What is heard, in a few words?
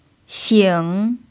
"xing3", Chinese